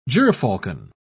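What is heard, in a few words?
"gyrfalcon", British English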